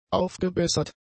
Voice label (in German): "aufgebessert", German; adjectives